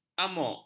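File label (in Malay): "amuk", Malay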